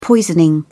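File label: "poisoning", British English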